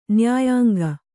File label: "ನ್ಯಾಯಾಂಗ", Kannada